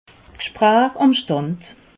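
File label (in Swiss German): "gsprach", Alsatian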